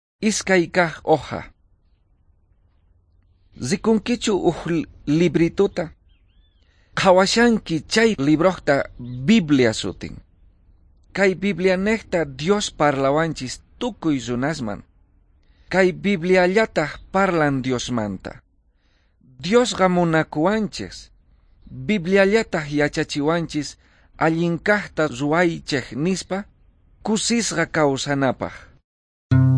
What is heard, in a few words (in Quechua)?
quechua bolivian cochabamba; good news